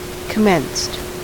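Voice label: "commenced", US English; pronunciations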